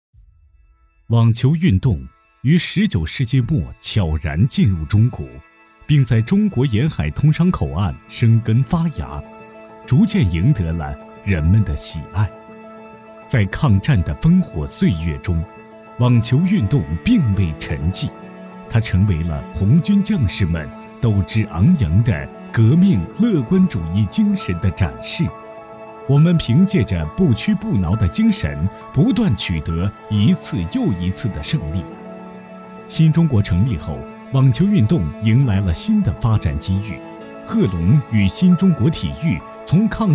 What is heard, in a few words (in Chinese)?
纪录片 青年（18-35）; 红色网球